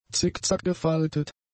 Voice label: "zickzack-gefaltet", German; adjectives